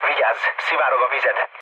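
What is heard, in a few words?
Hungarian damaged water mood low, speech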